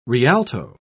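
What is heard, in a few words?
"rialto", British English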